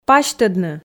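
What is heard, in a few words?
"пасьтӧдны", Komi, female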